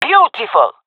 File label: cheer, jungle fem; vo; agents; Source Model Packs; Counter Strike Model Pack; CS2; jungle fem epic